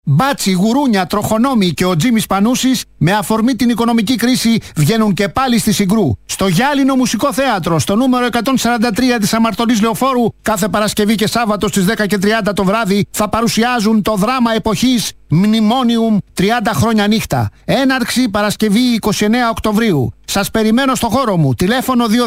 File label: radio spot; Mnimonium